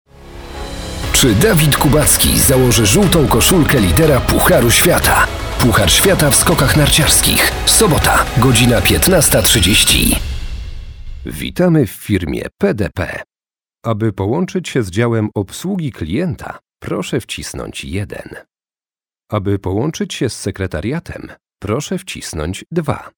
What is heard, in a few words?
pl male; lektoring